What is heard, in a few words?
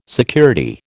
"security", American